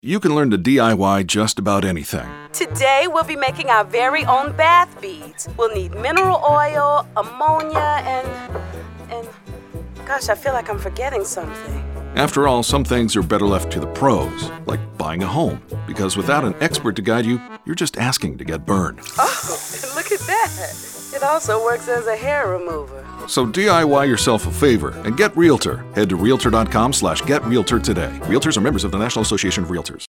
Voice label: radio ad; consumer advertising campaign; bath beads